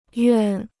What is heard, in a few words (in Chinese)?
"愿", Chinese